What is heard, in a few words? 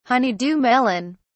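"honeydew melon", British English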